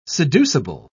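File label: "seducible", British English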